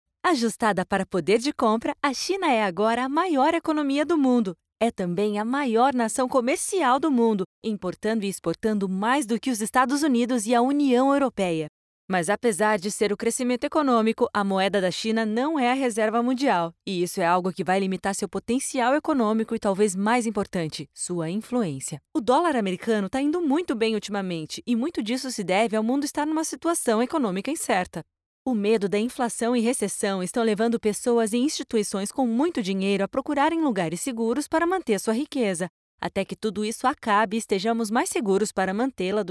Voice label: foreigner